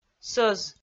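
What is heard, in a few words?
"сӧз", Komi, female; audio dict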